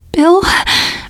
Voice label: name, teen girl; bill

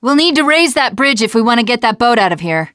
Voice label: spotting sailboat, teen girl

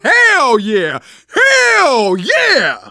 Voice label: taunt, survivor voice; manager; Left 4 Dead 2; left4dead2 dlc2

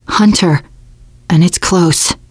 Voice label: heard hunter, teen girl; player; survivor; voice

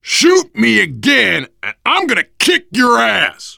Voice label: friendly fire, voice, biker; Left 4 Dead 2; survivor

